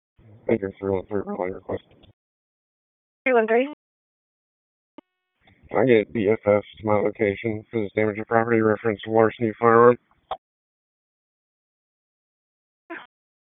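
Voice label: Police Dispatch; Raleigh Police Northeast District Disp; wake; p25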